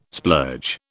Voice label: "splurge", British